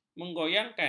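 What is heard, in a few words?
"menggoyangkan", Malay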